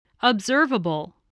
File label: "observable", voice, spelling